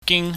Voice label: "ging", chinese